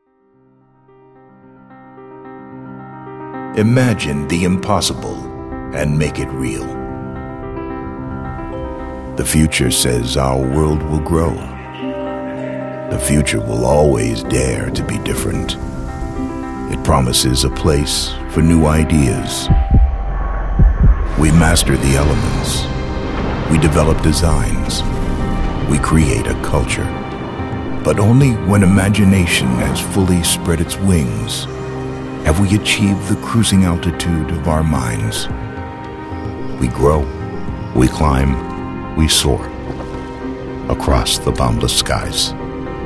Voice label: voiceovers; Diehl Aviation